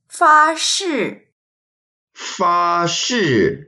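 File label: "fa1shi4", Mandarin Chinese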